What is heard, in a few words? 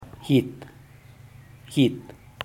git calabaza, zapoteco teotitlan